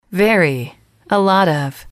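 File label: "very a lot of", English, female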